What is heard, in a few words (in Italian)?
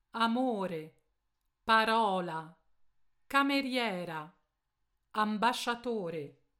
accento piano